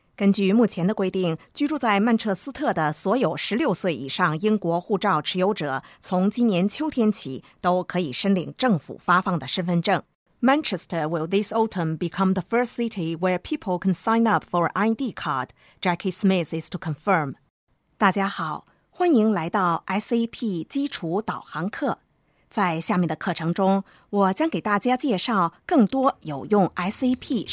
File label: Chinese Mandarin